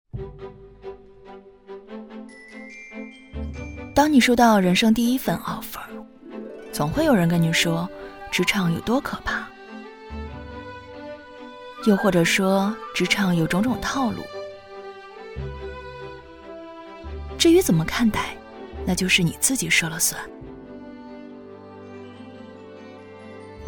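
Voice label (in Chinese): 旁白年会; 网易年会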